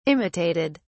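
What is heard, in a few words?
"imitated", British English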